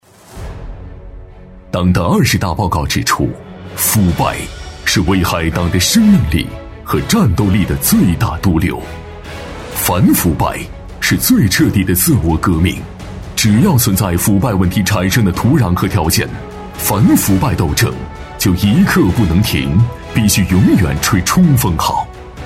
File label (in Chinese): 男 警示片 严肃紧张; 男B3-磁性稳重 大气浑厚